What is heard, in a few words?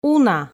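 "уна", Komi, female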